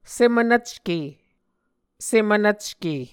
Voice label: "semvnvcke", Muscogee